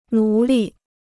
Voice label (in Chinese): "奴隶", Chinese